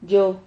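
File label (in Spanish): "llo", Spanish syllable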